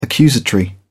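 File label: "accusatory", British English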